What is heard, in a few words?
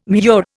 "murex", Indian